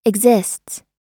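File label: "exists", American English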